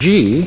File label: "g", male British; Alphabet